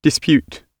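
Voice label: "dispute", British English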